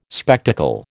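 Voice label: "spectacle", American English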